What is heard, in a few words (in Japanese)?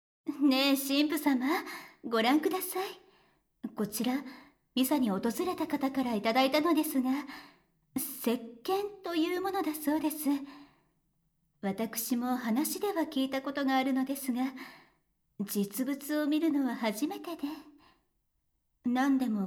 お風呂, ASMR; お風呂でオチンポおねだり; 効果音なし